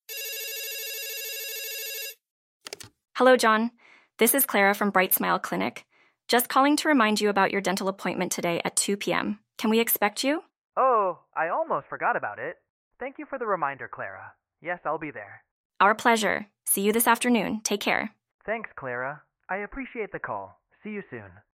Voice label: Dental Clinic Reminder Call; BrightSmile